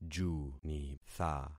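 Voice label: "junitha", concatenated syllables; Affixing experiment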